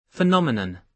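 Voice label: "phenomenon", British English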